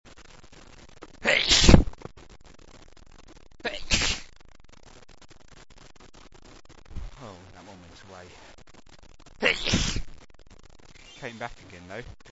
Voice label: small attack, male